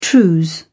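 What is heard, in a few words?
"trews", British English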